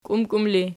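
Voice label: "kiumkium" ergative, Archi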